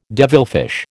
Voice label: "devilfish", American